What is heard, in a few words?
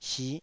"sih5", Taiwanese syllable